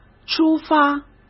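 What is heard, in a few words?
"出发", Mandarin Chinese; hsk; chinese flashcards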